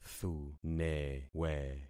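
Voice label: "thunewe", concatenated syllables; Affixing experiment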